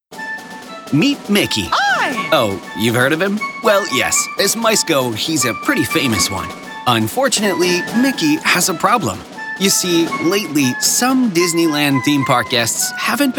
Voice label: Explainer